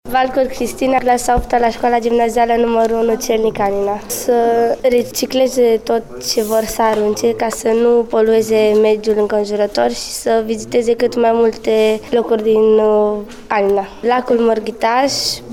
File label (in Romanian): vox copii